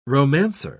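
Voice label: "romancer", English, British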